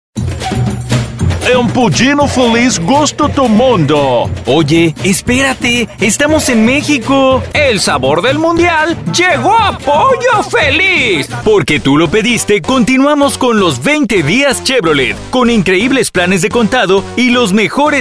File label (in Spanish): COMERCIAL